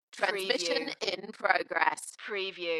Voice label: UK female Transmission in progress Dalekness; beanstalk audio

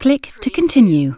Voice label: UK female click to continue; lynne publishing

